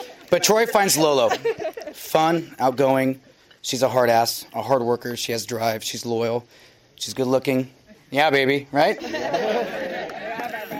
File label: comedy